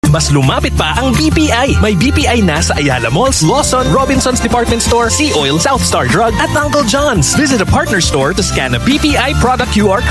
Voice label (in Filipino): Tagalog; BPI Partner Stores New Branches Opening